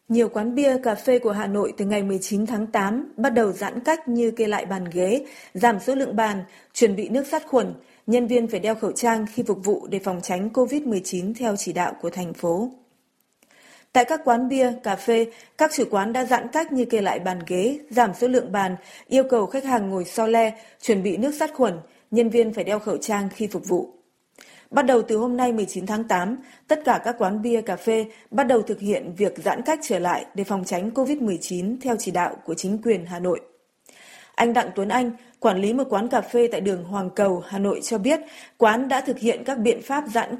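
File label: VI General, Vietnamese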